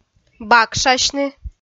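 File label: "бакшасьны", Komi, female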